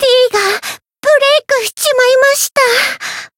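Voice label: Voice win barely, Japanese; myungwha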